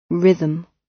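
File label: "rhythm", British English; The arts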